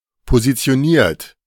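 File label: "positioniert", German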